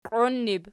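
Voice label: "qioinnu" plural, Archi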